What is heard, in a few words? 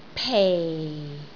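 "pei4", Cantonese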